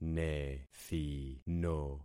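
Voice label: "nethino", concatenated syllables; Affixing experiment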